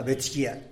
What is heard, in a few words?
"betxgia" type of rabbit; animals